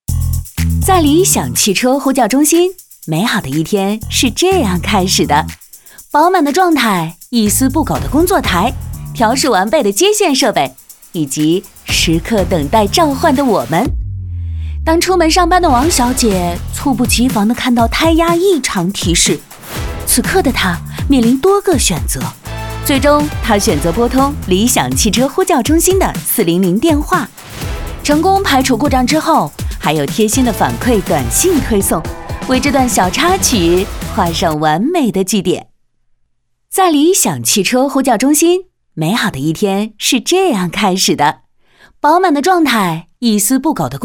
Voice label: 女 轻松活泼诙谐自然讲述

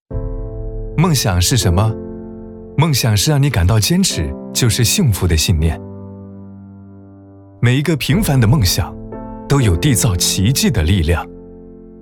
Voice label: 男 独白; 梦想

